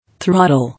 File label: "Throttle", English